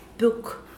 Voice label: book, Mokilese

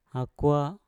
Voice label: "akwa", Mpur; cabang

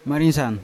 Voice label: "marisan", Yawa; chili pepper

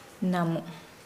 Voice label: Nukuoro; smell

